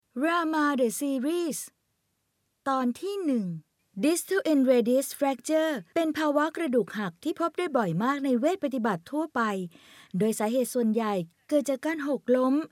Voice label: thai commercial; thai voice over talent